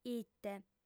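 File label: "ite", Sardinian, female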